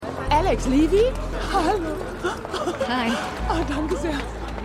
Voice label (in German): Frau Mit Kissen; THE MORNING SHOW (DF 2019)